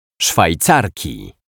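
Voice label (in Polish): "Szwajcarki", Polish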